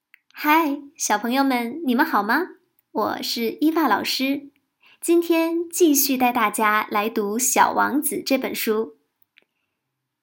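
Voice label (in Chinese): 少儿教学中英双语; 少儿教学小王子中英双语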